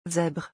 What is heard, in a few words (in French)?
"zèbre", French